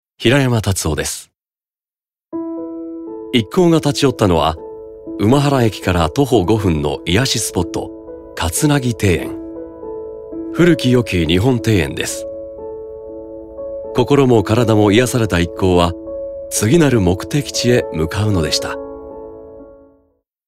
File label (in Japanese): ノーマル